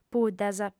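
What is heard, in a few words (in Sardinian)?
"puddas", Sardinian, female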